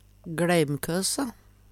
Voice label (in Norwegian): "GLeimkøse", Numedalsmål; Gladheimkøset; Særnamn (stadnamn)